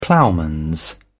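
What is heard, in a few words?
"ploughman", British English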